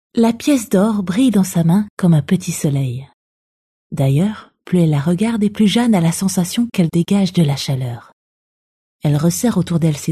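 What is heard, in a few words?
French, female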